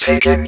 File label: Vocoder Words; Vengeance Samples